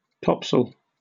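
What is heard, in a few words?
"topsail", British English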